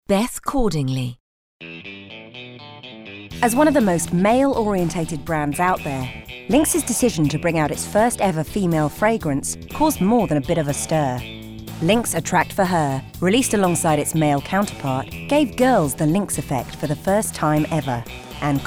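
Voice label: narration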